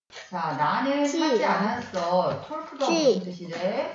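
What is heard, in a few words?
"zip", spoken word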